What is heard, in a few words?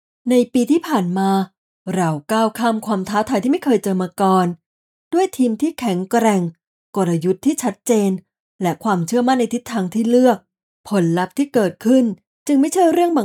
Corporate Report